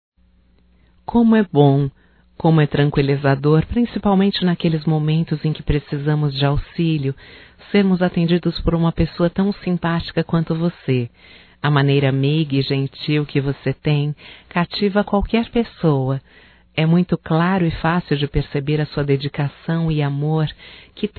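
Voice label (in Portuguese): Homenagem a telefonista, voz feminina; Telemensagens; HOMENAGENS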